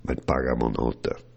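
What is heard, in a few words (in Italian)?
Me l’paga amò nóter, bergamasco; [Trad.: Paghiamo ancora noi]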